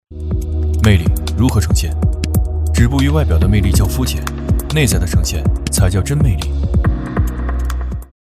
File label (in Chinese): 男 TVC 车; 汽丰田亚洲狮; 汽车tvc广告